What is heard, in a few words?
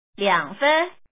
Woman, lord